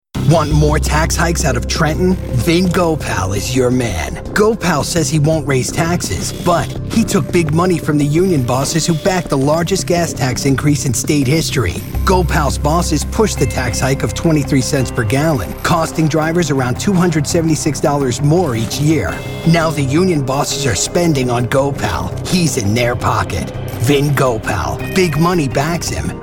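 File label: American English political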